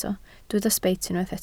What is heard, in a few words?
"sbeit", Welsh